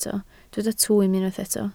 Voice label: "twym", Welsh